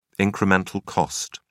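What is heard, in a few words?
"incremental cost", English, British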